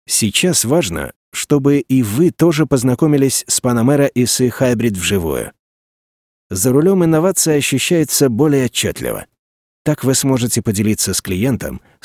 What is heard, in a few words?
russian male